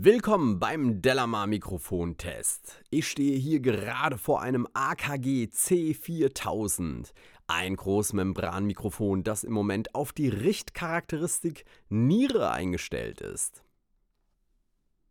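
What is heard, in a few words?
speech male; akg c4000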